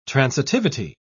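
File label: "transitivity", British English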